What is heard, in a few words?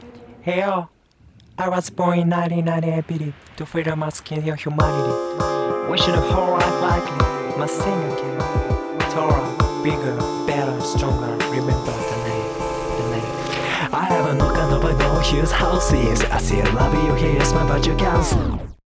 rap rapping